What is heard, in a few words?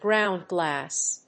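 "ground glass", English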